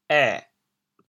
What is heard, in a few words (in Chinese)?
"華", 潮州話